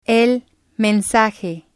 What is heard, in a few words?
"el mensaje", spanish